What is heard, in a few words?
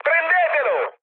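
Italian attack position mood med, speech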